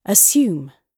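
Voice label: "assume" British English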